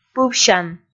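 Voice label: "пывсян", Komi, female; kpv audio dict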